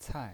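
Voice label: "cai", Mandarin Chinese, fourth tone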